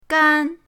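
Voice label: "gan1", Mandarin Chinese, first tone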